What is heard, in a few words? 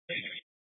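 Incident Tactical, scanner audio recording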